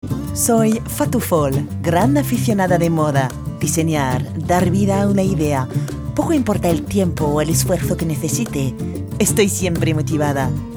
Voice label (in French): ESPAGNOL Pub Radio Naturel souriant; CASTEL BEER